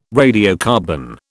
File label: "radiocarbon", British